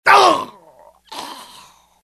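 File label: death, dialogue generic Italian; mohaa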